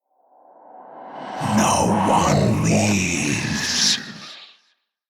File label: Whispers